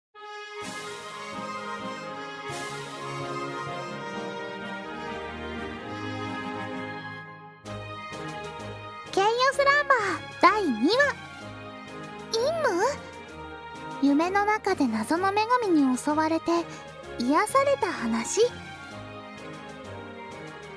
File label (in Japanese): タイトルコール